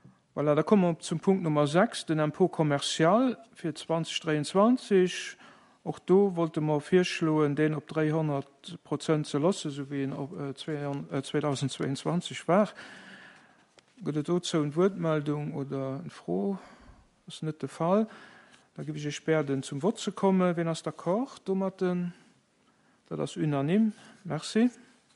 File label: commercial; Impot